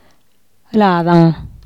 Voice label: they, teotitlan